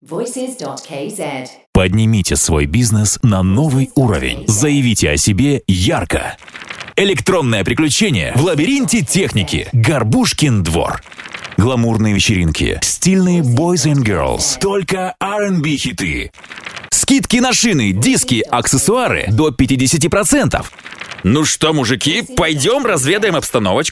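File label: Russian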